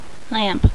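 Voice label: "lamp", female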